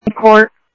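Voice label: Sheriff East County, scanner audio trunk; MCSO - EAST; ThompsonPeakScot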